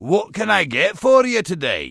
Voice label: Dwarf Male Standard NPC Vendor

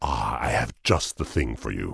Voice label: Tauren Male Warrior Vendor; NPC

